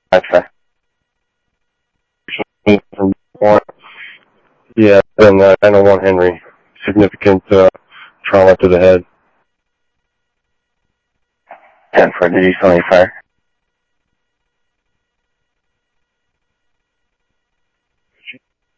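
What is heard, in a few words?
Sheriff Lake Patrol, scanner audio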